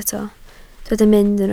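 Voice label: "mynd" Welsh, spoken word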